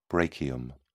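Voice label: "brachium", English, British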